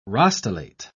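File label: "rostellate", British English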